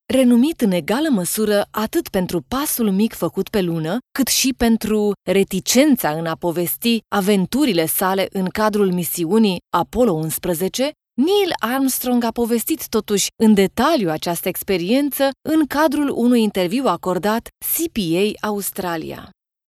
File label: Romanian narration, female